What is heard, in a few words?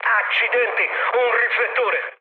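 Italian searchlite mood med, speech; War Thunder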